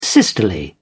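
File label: "sisterly", British English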